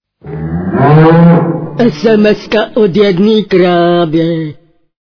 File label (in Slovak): sms hlásky cz